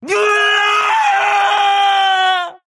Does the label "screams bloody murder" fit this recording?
Yes